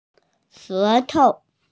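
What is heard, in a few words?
"tongue", Mandarin Chinese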